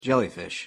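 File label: "jellyfish" English pronunciation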